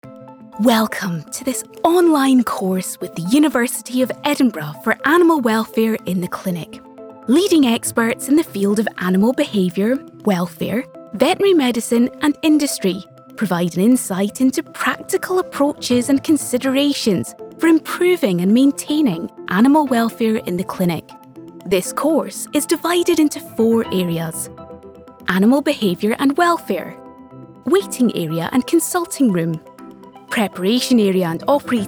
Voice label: eLearning Education, Scottish voice overs